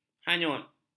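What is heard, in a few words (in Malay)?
"hanyut", Malay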